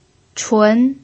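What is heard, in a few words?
"chun" second tone, Mandarin Chinese pinyin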